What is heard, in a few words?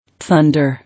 "Thunder", English